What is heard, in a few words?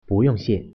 thanks reply, Mandarin